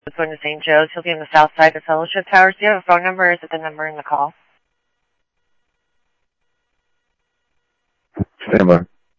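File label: fire radio dispatch, Phoenix Fire; H-Scottsdale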